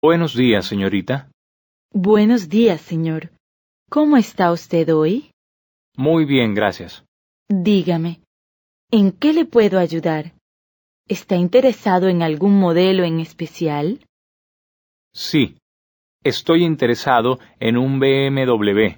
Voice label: Dialogue, Spanish